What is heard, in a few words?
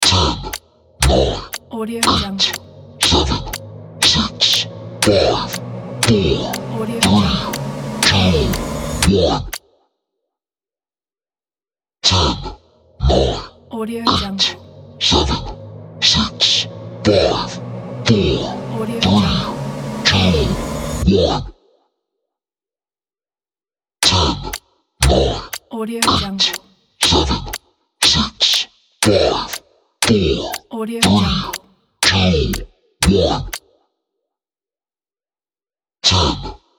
scary deep voice countdown